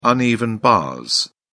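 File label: "uneven bars", British English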